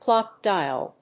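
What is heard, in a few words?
"CLOCK DIAL", English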